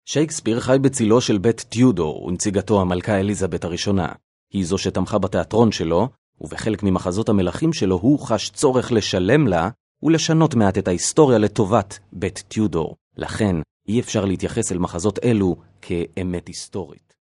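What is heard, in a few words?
Hebrew, male, narration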